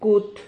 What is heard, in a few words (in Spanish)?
"cut", Silabas